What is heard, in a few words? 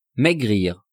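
"maigrir", French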